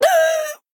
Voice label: Female Pirate Death; en